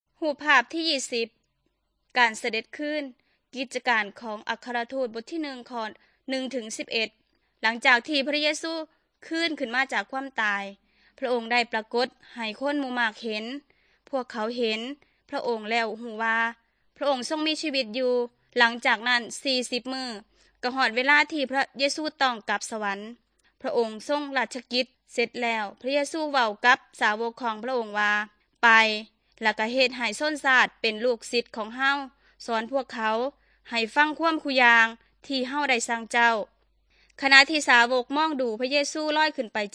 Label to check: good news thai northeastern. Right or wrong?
Right